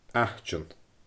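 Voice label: "aiteann", Scottish Gaelic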